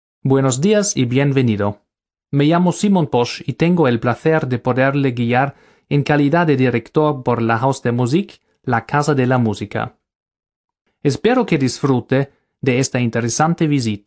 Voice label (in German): Begrüßung Spanisch